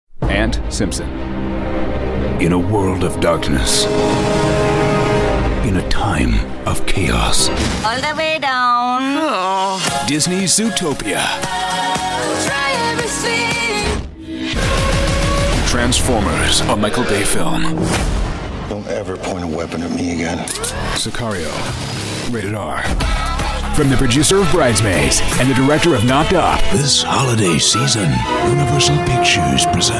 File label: Trailers